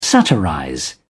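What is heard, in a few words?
"satirize", British English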